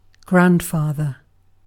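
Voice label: "grandfather", British English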